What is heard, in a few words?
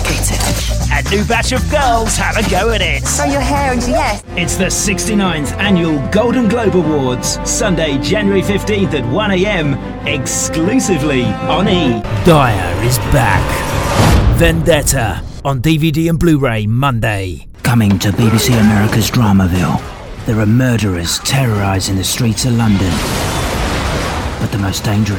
british promos; BRITISH » British, Character Actor, Animation.